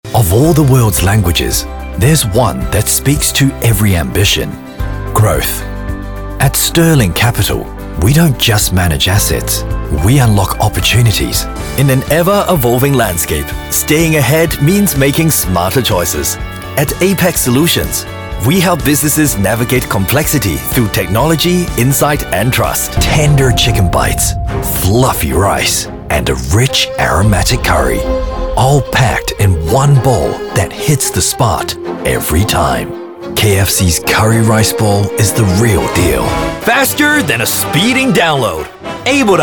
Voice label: english international commercial, australian voice actors